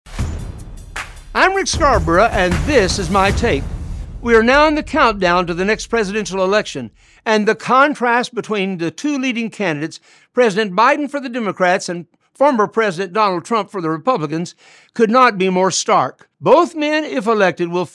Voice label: Radio Spot; TrumpvsBiden